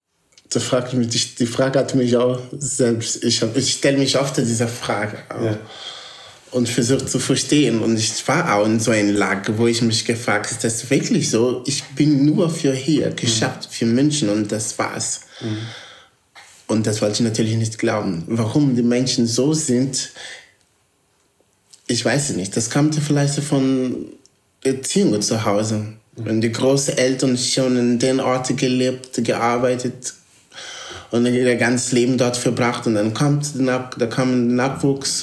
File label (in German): portugiesisch mosambik